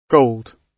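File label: "gold", British English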